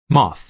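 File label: "moth", American English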